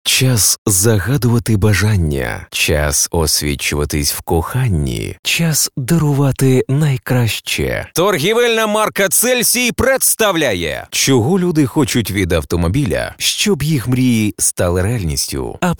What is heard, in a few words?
Ukr